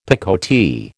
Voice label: "picotee", American

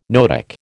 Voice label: "noetic", American

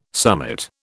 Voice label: "summit", British

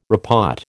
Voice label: "repot", American

